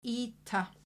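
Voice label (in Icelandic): "Ýta", Icelandic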